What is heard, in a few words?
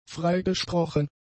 "freigesprochen", German; adjectives